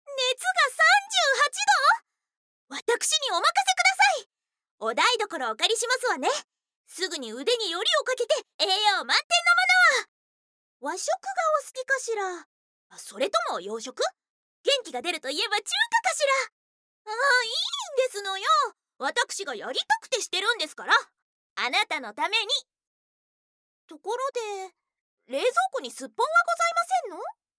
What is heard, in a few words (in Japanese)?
Ojousama Kuchou Sub Heroine